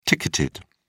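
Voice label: "ticketed", British English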